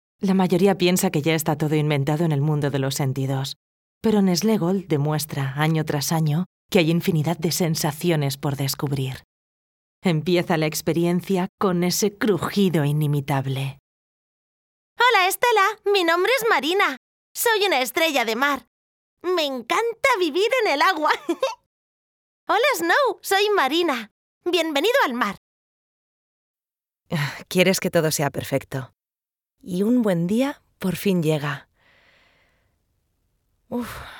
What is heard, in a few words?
ES Fem; Decibel